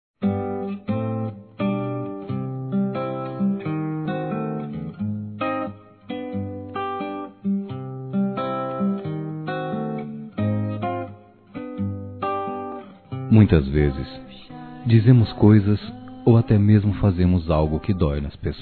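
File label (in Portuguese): Namorados Com Reconciliação, male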